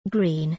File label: "Green", English